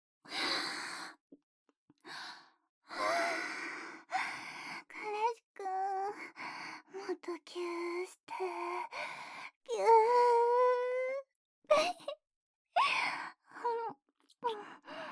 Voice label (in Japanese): 好き好きのキス攻め＆囁きピロートーク